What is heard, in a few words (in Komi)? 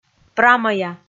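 "прамӧя" Komi, female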